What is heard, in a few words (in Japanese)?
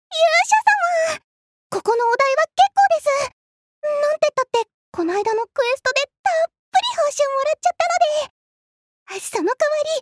アニメ